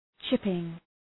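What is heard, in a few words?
"chipping", English; {‘tʃıpıŋ}; Ουσιαστικό; απόκομμα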